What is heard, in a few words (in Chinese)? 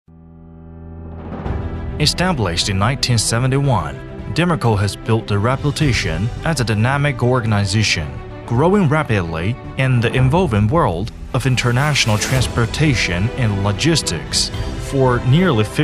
英语男, 宣传